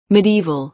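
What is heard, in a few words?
"medieval", American English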